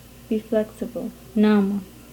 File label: Swahili n